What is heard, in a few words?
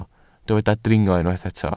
"dringo", Welsh